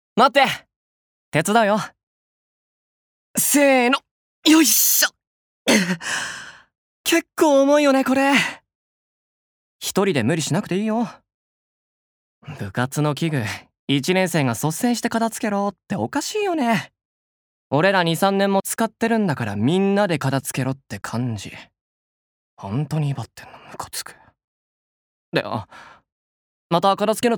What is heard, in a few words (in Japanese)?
アニメ